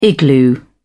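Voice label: "igloo", English, British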